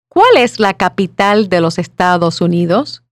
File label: Spanish